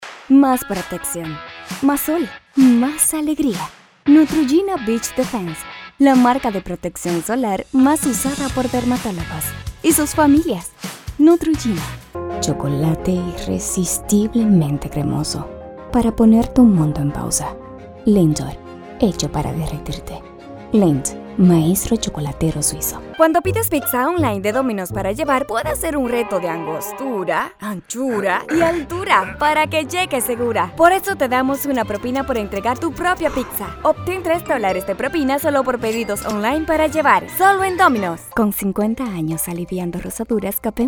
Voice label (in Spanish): Comercial